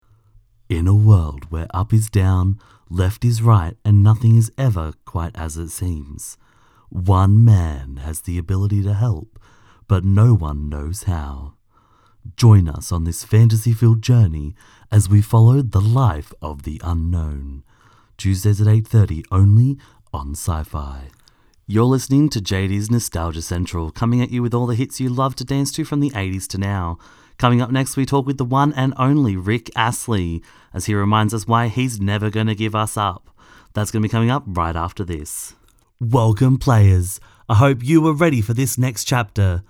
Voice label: VOICE OVER VARIOUS DRY AUDIO